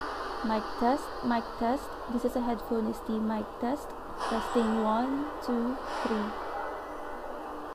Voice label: Earbuds Noisy

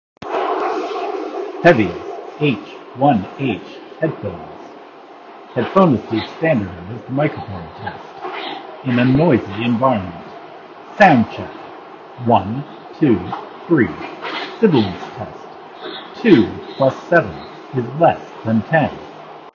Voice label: Loud Environment; Heavys H1H

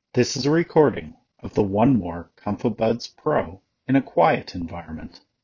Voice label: quiet environment; 1MORE ComfoBuds Pro

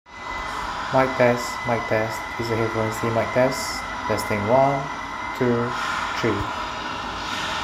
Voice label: Noisy; Powerbeat Pro

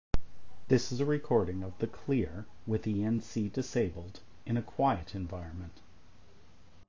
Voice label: Elevoc Clear Quiet No ENC

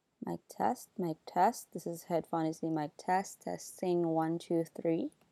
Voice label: mic in quiet room

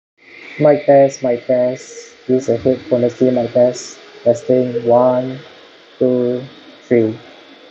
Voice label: FiiO BTR noisy

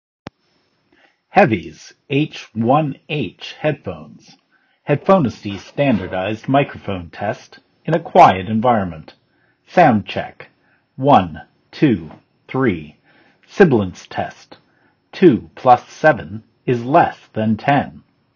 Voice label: Quiet Environment; Heavys H1H

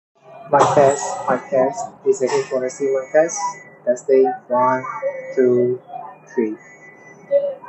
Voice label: noisy; TIN HiFi T2000

